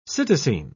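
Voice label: "psittacine", British English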